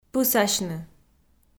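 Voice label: "пысасьны", Komi, female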